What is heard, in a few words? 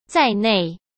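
"在内", Mandarin Chinese; chinese flashcards; hsk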